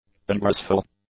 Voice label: "ungraceful", english; adjectives